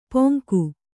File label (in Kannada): "ಪೊಂಗು", kannada